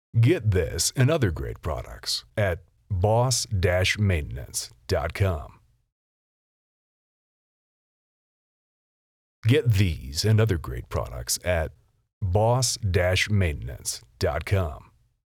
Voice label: Voiceover